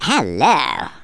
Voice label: "hello", speech; Worms Armageddon